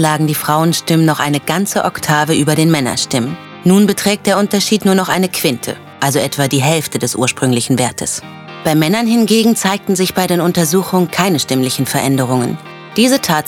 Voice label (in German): Doku Stimmen